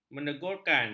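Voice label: "menegurkan", Malay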